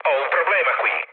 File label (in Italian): aircraft damaged, mood low, speech it; War Thunder